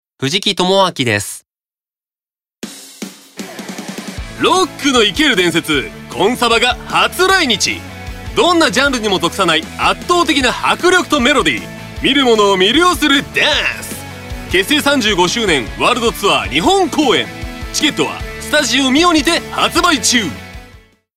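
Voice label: commercial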